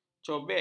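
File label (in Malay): "cobek", Malay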